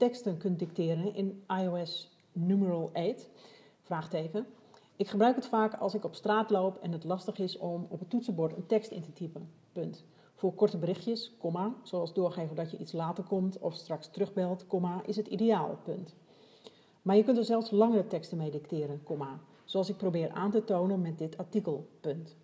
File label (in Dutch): dicteerfunctie ios 8 opname